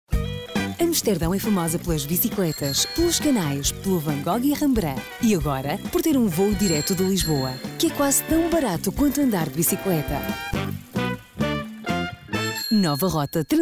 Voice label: female portuguese comercial; ideasonora

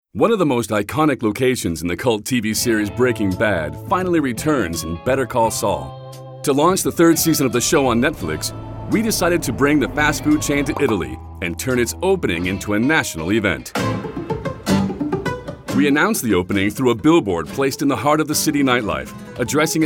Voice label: male american english comercial; netflix; ideasonora

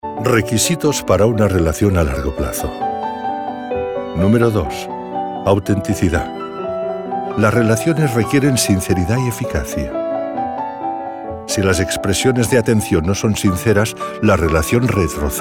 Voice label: male spanish relation comercial; ideasonora

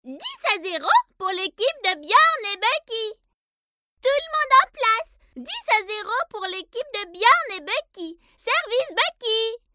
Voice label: female french kid comercial; ideasonora

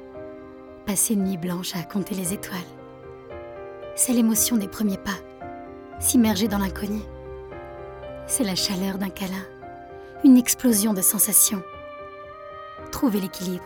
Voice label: female french aventure comercial

